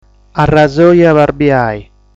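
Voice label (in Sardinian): "Arrasoja De Abarbiai", Sardinian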